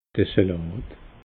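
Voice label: "salat", Alsatian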